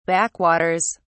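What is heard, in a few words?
"backwaters", British English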